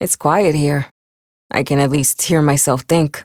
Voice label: Ambient Barks, en; Warframe; Mall Romance; Lettie